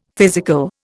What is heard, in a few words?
"physical", Indian; Dictionary